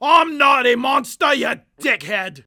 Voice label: Dwarf Friendly Fire